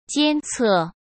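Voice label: "监测", Mandarin Chinese; chinese flashcards; hsk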